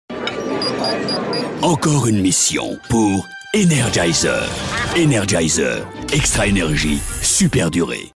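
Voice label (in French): PUB Energizer, voix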